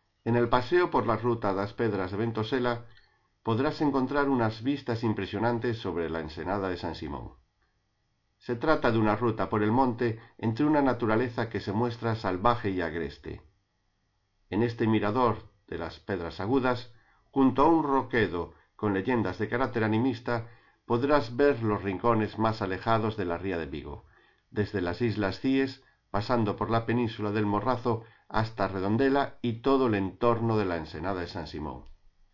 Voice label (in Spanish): castellano; MIRADOIRO PEDRAS AGUDAS